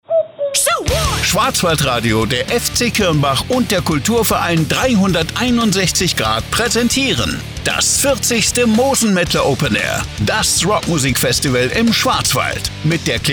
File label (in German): Radiospot; Moosenmaettle Open Air 2024